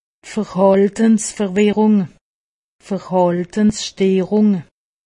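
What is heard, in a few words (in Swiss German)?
"verhaltensverwirrung", Haut Rhin; medecine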